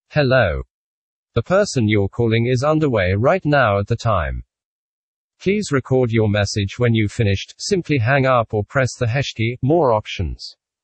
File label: male, UK